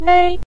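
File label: "nei", Mandarin Chinese neutral tone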